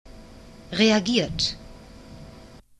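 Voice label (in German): "reagiert", German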